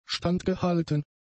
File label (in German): "standgehalten", German; adjectives